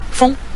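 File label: "feng", Mandarin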